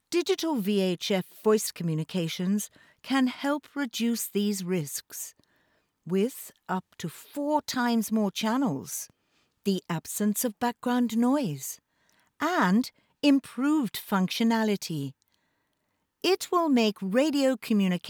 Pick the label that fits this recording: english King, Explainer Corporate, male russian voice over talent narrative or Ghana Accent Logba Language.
Explainer Corporate